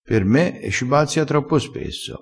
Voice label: "bazziaa", vocabolario dialettale crespinese

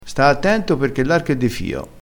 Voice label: "di fio", crespinese

